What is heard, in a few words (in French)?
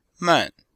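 "man", Chinese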